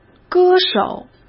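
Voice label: "歌手", Mandarin Chinese; chinese-flashcards; hsk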